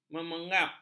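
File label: "memengap", Malay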